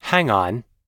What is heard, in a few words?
hang on, US English